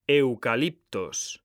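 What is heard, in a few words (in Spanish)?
"eucaliptos", Spanish; mekanta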